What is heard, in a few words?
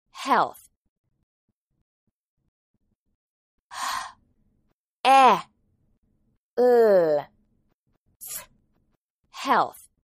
"health", English